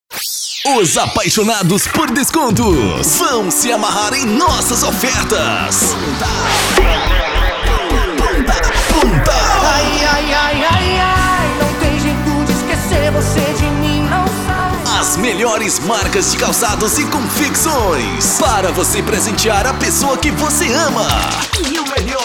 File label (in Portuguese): spot dia dos namorados; pontal